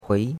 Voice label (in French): "hui2", chinois; Cours-de-Chinois